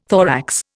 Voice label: "thorax", Indian